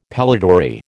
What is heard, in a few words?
"pellitory", American